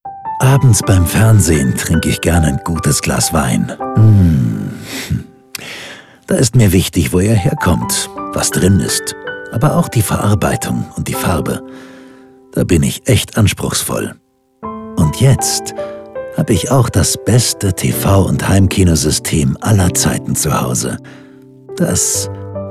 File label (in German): Funkspot Radio; Ferner